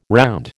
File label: "round", American